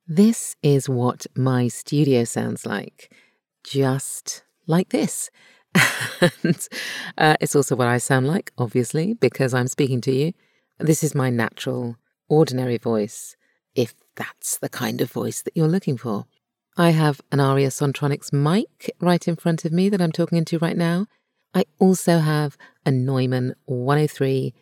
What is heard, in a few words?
British Voiceover